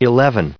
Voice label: "eleven", en-us, recite